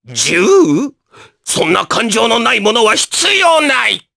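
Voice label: vox dia Japanese; Oddy; kingsraid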